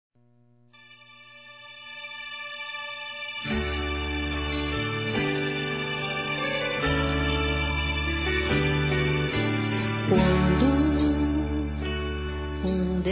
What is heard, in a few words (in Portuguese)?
EVANGÉLICO M; Aniversários; ANIVERSARIO romantico; masc